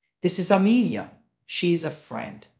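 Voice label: Voice Acting, Spanish, male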